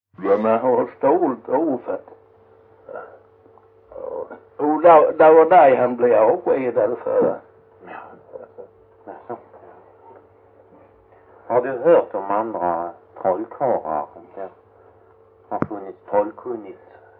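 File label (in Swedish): Jämshög Blekinge, dialekter; Folkminnen; Svenska sagor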